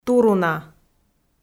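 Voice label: "туруна", Komi, female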